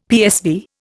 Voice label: "PSV", Indian; Dictionary